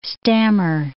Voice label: "stammer", English